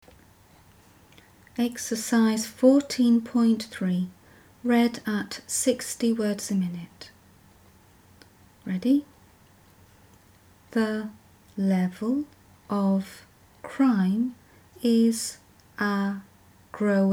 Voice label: 60wpm; Teeline